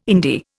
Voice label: "indie", Indian